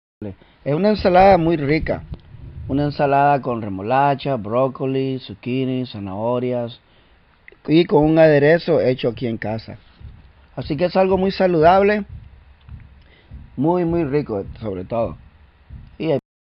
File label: spanish listening novice